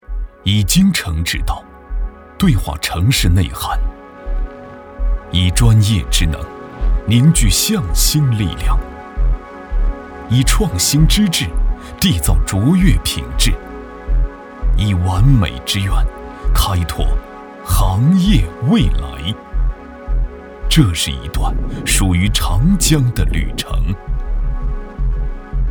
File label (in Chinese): 男 广告 舒缓